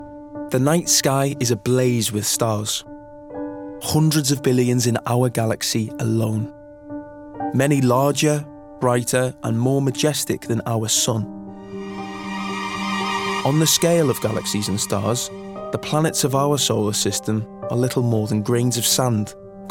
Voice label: Documentary; 20s-40s. Male. Studio. Liverpool. Based in the US.